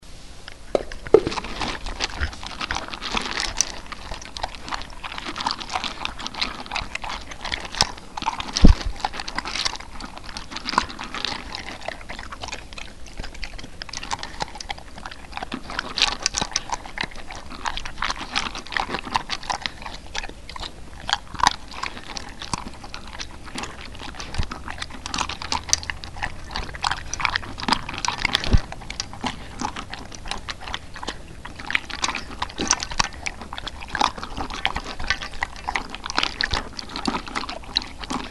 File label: lakota eating